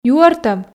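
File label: "юӧртӧм", Komi, female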